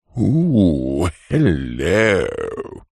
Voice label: human voice male english saucy flirtatious ohh hello